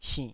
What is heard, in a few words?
"sinnh3", taiwanese Syllables